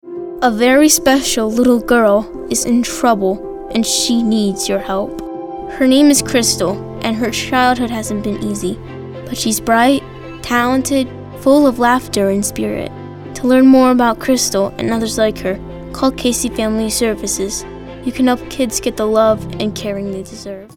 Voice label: Family Services, bright, friendly, and upbeat tone